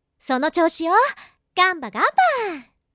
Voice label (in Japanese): cheer その調子よ; ランアンドウォークループ用音源